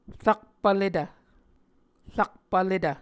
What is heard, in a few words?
"rakpvletv", Muscogee